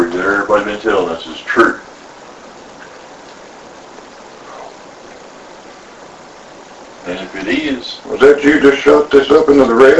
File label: Unexplained Low whisper (Help) with a K2 Hit Amplified